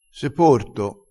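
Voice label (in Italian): "seporto", vocabolario dialettale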